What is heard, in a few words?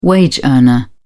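"wage earner", British English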